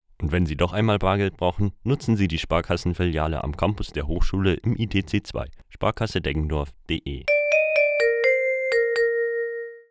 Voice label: Werbung Reminder; Sparkasse

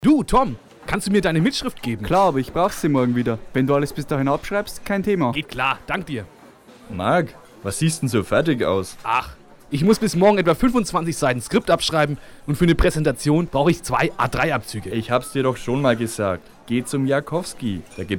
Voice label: Werbung

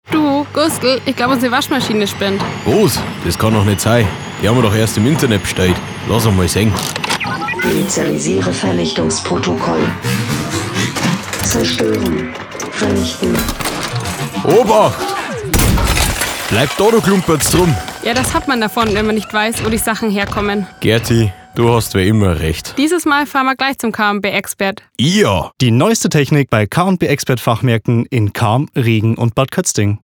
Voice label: Werbung; K+B Expert

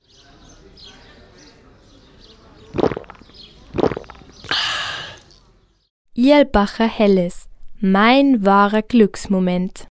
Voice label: Werbung Reminder; Irlbacher

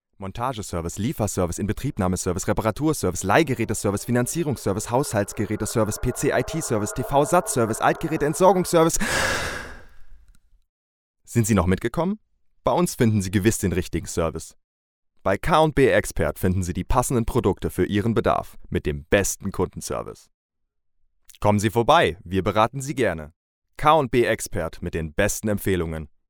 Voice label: Werbung Service; K+B Expert

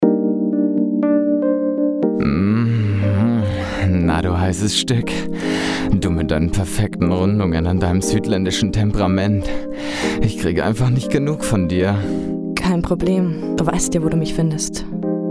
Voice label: Werbung Pizza Bar; Toskana

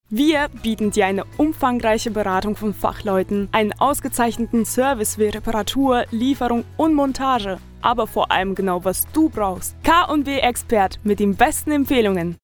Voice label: Werbung Reminder; K+B Expert

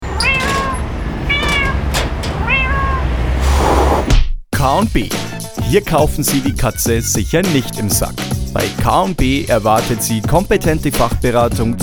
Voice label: Werbung Image

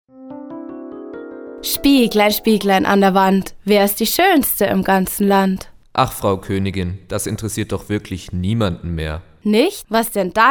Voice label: Werbung Sparkasse

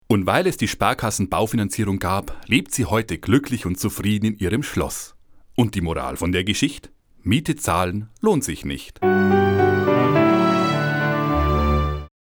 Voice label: Werbung Reminder; Sparkasse

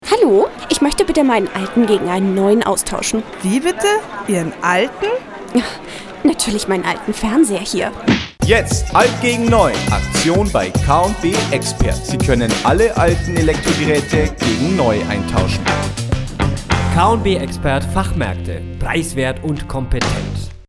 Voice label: Werbung Fernseher; K+B

